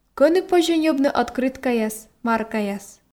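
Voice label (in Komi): кӧні позьӧ ньӧбны ӧткрыткаяс маркаяс, Komi, female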